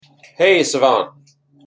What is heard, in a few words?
hey savant, wake word, en; Precise-Community-Data